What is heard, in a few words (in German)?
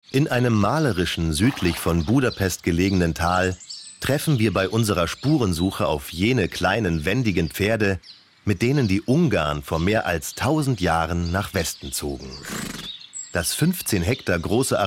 doku; ungarn